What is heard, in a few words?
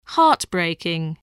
"heartbreaking", English, spelling